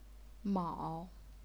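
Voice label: "mao", third tone, chinese pinyin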